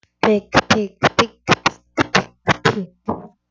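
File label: "pick", spoken word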